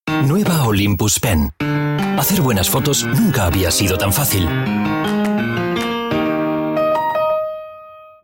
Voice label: werbung, spanisch

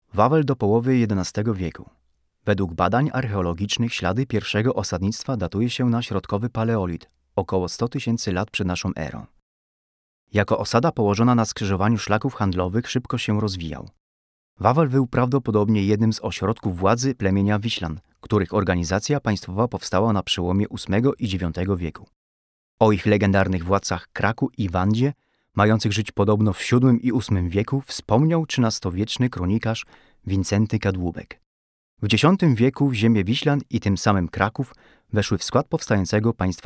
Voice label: polnisch erzaehlung; sprecher

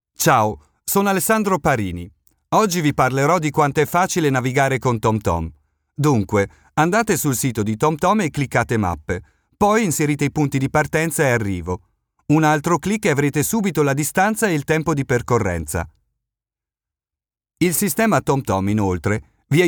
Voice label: it elearning, italienisch; sprecher

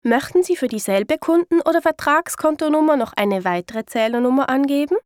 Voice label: ch telefon, schweizerisch

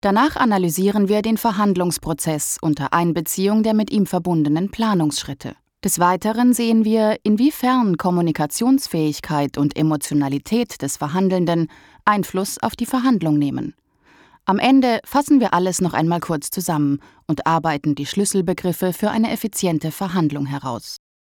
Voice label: deutsch frauen elearning; sprecher

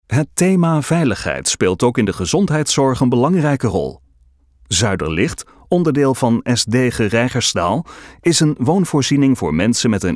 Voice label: nl elearning, niederlaendisch; sprecher

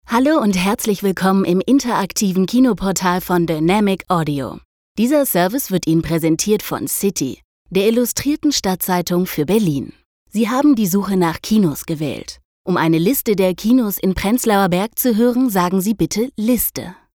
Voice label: telefon, deutsch frauen

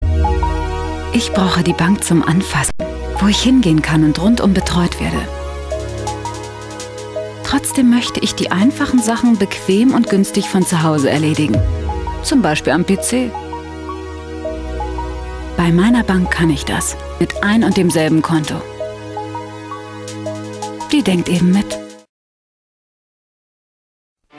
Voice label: werbung, deutsch frauen; sprecher

